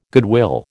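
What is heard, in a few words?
"goodwill", American English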